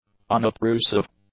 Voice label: "unobtrusive", English; adjectives